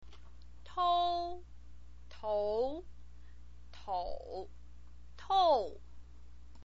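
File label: "tou", Mandarin Chinese pinyin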